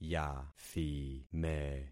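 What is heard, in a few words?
"yathime", concatenated syllables; Affixing experiment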